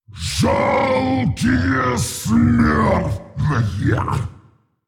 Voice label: Male Dragon Attack

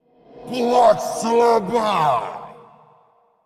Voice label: Male Faceless Attack

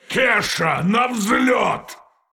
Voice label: Male Qiraji Play

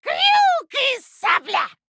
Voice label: Female Gnome Play

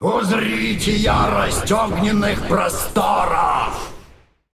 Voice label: Male Night Elf Play; Фэндрал Олений Шлем; Hearthstone: датамайн обновления 5.0

